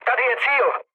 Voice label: near waypoint, speech cze, mood low; War Thunder